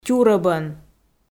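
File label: "тюрӧбӧн", Komi, female; audio dict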